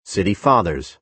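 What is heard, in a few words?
"city fathers", American English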